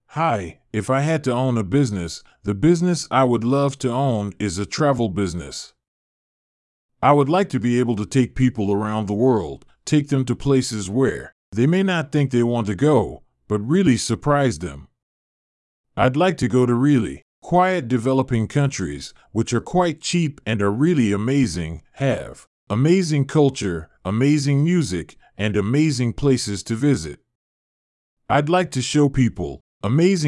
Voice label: Audiobook Voice